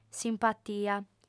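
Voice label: "simpatia", female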